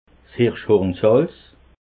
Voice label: "hirschhornsalz", alsace

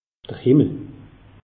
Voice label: "himmel", alsace; meteo

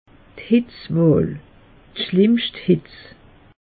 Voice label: "hitzwall", alsace; meteo

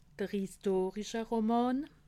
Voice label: "historische roman", alsace

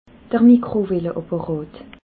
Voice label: "mikrowalleapparat", alsace

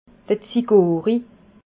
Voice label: "zigori", alsace

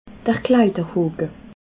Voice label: "kleiderhoke", alsace

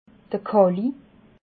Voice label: "kali", alsace

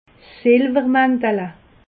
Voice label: "silwermantel", alsace

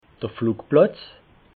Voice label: "flugplatz", alsace

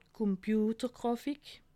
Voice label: "komputergrafik", alsace

